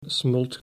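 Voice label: "smolt", Dutch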